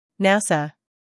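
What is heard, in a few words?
"humor", British; nasa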